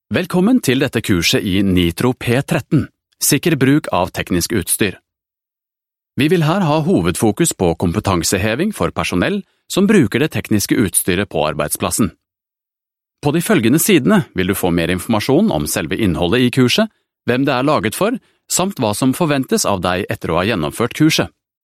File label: Norwegian eLearning, Male